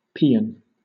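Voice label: "paean", British English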